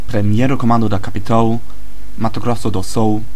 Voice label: Portuguese u-sounded L